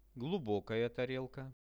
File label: "glubokaja tarelka", Russian; posuda